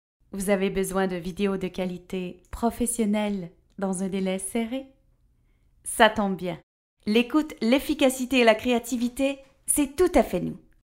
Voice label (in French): Demo Voix